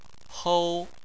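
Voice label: "hou", Chinese, first tone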